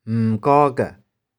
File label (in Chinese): "m3kau3keh0", 玉環